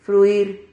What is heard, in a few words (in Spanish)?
"fruir", Silabas